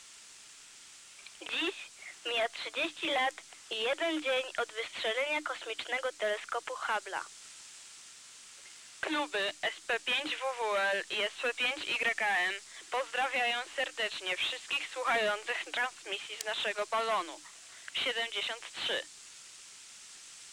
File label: Voice PL